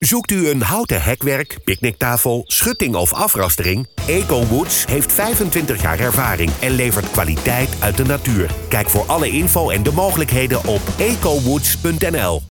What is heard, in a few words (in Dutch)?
radiocommercial; EcoWoods